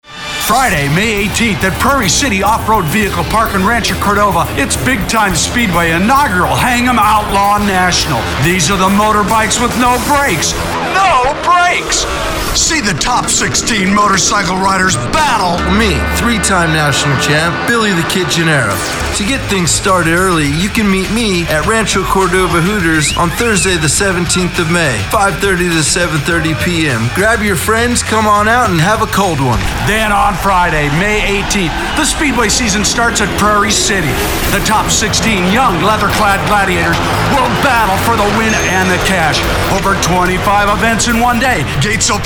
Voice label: Radio Spot; BigTime 96.9